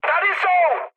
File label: enemy detected, speech cze, mood high